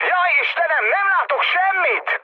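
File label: Hungarian searchlite mood high, speech; War Thunder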